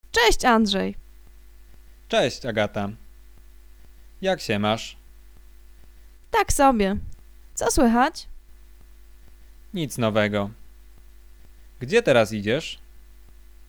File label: conversation; lektorek; firstyear